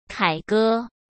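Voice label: "凯歌", Mandarin Chinese; chinese flashcards; hsk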